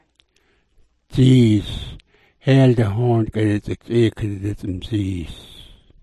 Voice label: "dziis", smalgyax